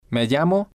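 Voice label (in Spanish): me llamo, Spanish, male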